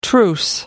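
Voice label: "truce", English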